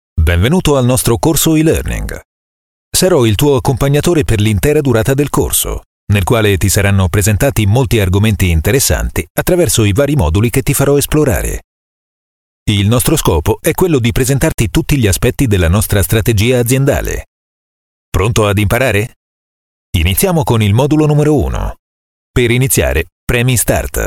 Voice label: italian voice over male elearning